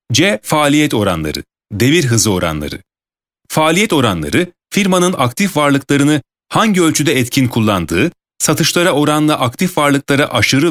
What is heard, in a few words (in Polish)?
Turecki